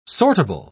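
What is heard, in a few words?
"sortable", British English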